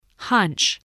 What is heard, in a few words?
"hunch", voice